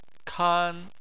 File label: "kan1", Chinese